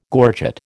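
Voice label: "gorget", American English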